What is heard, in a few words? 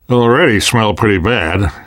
world hospital, namvet, survivor voice